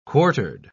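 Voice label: "quartered", British English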